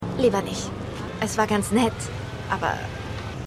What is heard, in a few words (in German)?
Junge Frau, Stimmproben